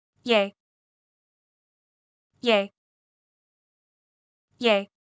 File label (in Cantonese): 爺, Cantonese; Traditional Chinese